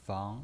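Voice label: "fang" Mandarin Chinese, second tone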